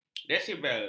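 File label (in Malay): "desibel", Malay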